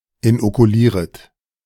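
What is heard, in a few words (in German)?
"inokulieret", German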